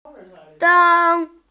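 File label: "zang1", Mandarin Chinese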